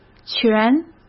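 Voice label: "全", Mandarin Chinese; chinese-flashcards; hsk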